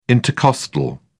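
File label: "intercostal", English, British English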